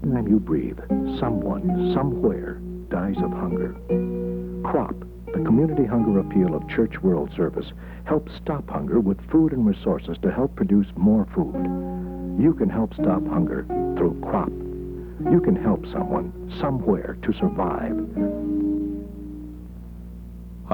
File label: tv commercial break; KLZ TV; November 1 1970; audio vault